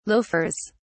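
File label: "loafers", British English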